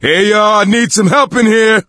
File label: call for rescue, voice; Left 4 Dead 2; coach